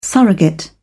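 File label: "surrogate", British English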